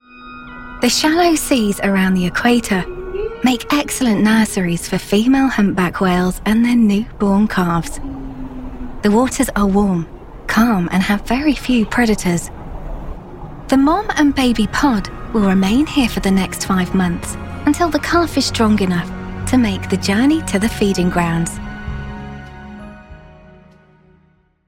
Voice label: english british documentaries

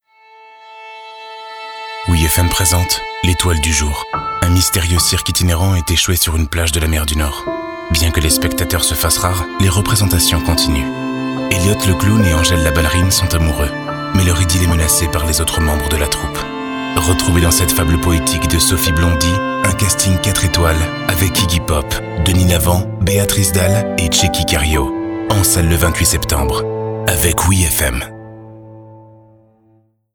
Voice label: french movie trailers

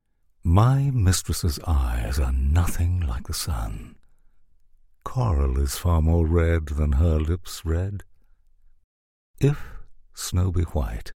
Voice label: english scottish others

